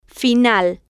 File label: "final", Spanish